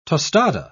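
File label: "tostada", English, British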